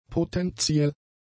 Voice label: "potentiell", German; adjectives

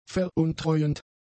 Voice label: "veruntreuend", German; adjectives

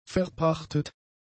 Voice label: "verpachtet", german; adjectives

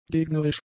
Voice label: "gegnerisch", german; adjectives

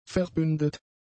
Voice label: "verbündet", German; adjectives

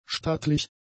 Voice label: "stattlich", German; adjectives

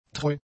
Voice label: "treu", German; adjectives

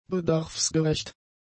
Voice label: "bedarfsgerecht", German; adjectives

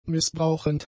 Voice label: "missbrauchend", German; adjectives

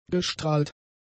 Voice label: "gestrahlt", german; adjectives

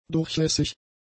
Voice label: "durchlässig", German; adjectives

